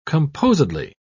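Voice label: "composedly", English, British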